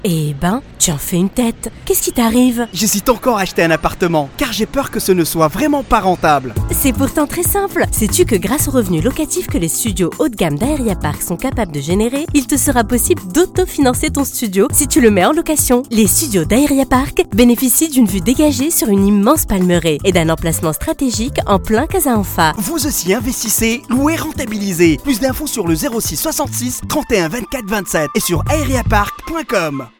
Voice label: Radio; Aeria